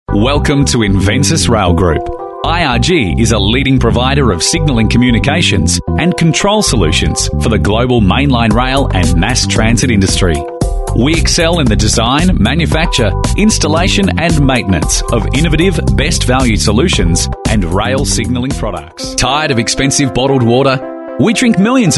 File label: Male Talent corporate